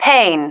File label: "pain", female